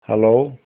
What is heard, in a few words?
hello, icelandic